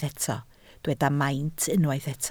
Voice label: "maint", Welsh